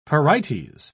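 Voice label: "pyrites", British English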